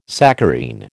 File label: "saccharine", American